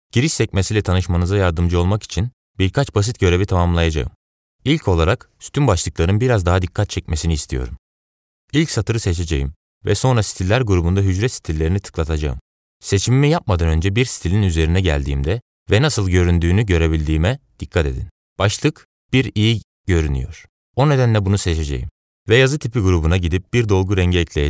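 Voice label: Turkish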